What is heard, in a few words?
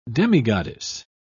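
"demigoddess", British English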